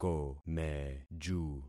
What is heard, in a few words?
"komeju", concat syllables; Affixing experiment